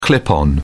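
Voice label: "clip on", British English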